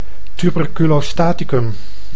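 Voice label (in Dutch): "tuberculostaticum", Dutch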